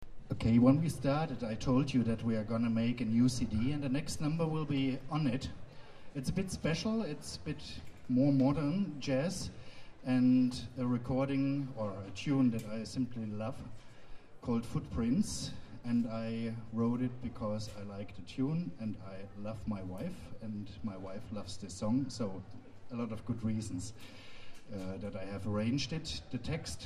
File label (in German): Ansage